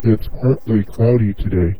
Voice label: low voice giant; MorphVOX Pro